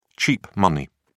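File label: "cheap money", British English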